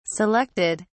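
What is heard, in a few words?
"selected", British English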